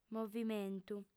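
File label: "movimentu", female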